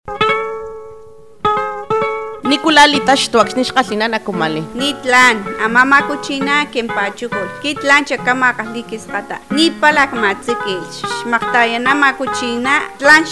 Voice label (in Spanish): Lengua Totonaco; FI Papantla; Violencia Obstetrica